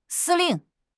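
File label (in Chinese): "司令", Chinese; HSK6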